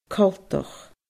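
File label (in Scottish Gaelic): "coltach", Scottish Gaelic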